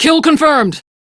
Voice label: Crew Gun Kill Fighter, Steel Meridian Female; Dialog; en; Warframe; RailjackCrew

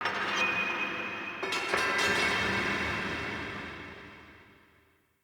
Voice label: Crypt Warrior Echo; Ambience; Champion Crypt

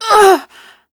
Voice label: Pain, Female; Warframe; Railjack; Steel Meridian

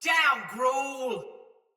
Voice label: Attack Heavy, Barks, Battle, Female; Sinecure Soldier Mace Agent; Sinecure Melee

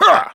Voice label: Angry; Warframe; Tyl Regor Boss; Efforts

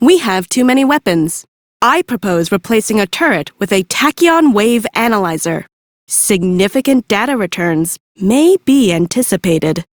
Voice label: Idle, Female; en; Warframe; Railjack Crew; Cephalon Suda

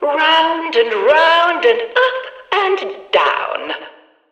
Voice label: Background Announcements

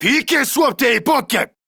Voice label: Attack Male, Battle Barks; en; Modular Corpus

